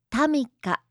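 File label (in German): "Tamika", japanische Mädchennamen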